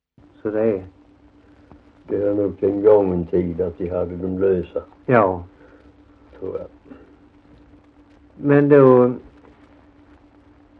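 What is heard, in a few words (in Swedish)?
Alfshög Halland, Svenska sagor, dialekter; Folkminnen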